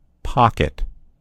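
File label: "pocket", American English; gcompris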